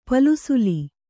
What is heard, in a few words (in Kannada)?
"ಪಲ್ಸುಲಿ", Kannada